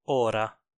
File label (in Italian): "ora", Italian